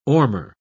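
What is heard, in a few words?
"ormer", British English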